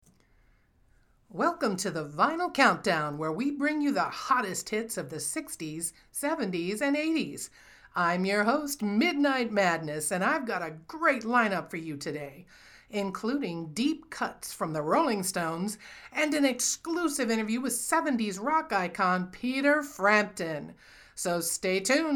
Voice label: Radio Show Opener